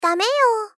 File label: Gentle Refuse "Dame yo"